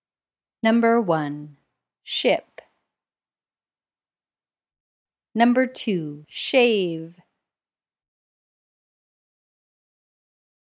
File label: Pronunciation Practice